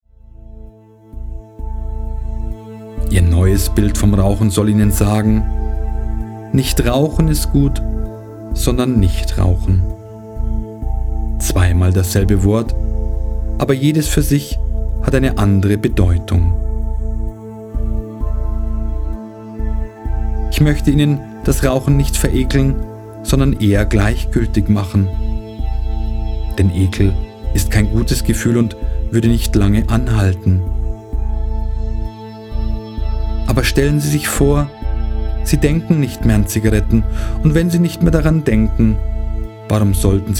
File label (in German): Hoerprobe Fuer immer Nichtraucher Hoerbuch